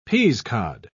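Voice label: "peasecod", British English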